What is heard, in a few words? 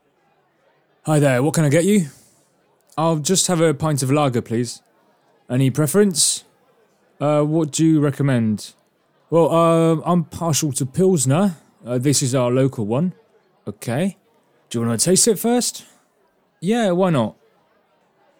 Pub Conversation